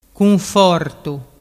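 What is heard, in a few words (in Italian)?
"cunfortu", Vernazzese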